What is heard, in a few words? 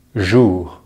"jour", French; easypronunciation